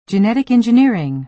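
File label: "genetic engineering", American English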